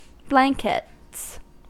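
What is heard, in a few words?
"blankets", English, American accent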